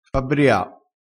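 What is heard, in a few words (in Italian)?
"fabbria", vocabolario dialettale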